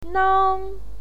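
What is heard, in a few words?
"nang" first tone, pinyin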